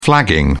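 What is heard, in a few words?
"flagging", British English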